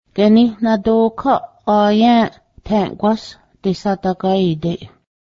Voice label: i noticed that youre not feeling well, Cayuga

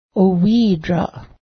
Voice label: ice, Cayuga

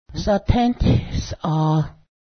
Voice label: harvest, Cayuga

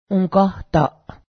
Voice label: full of food, Cayuga

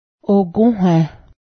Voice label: mosquito, Cayuga

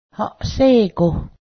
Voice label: go get and remove, Cayuga

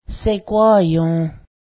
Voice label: "kiss", Cayuga

